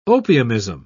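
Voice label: "opiumism", British English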